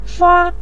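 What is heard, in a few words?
"shua", Mandarin Chinese, neutral tone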